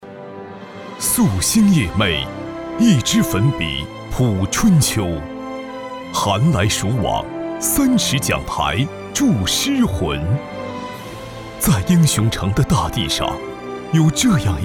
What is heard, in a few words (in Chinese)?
男 专题片 党建